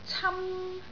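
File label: "cam1", Cantonese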